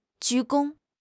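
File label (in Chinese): "鞠躬", Chinese; HSK6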